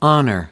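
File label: "honor", American English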